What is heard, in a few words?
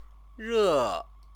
"re", Chinese